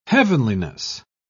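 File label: "heavenliness", British English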